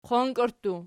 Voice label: "qonki", Archi